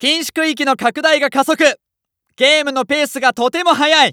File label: Announce Restricted Accel, JPN; Eternal Return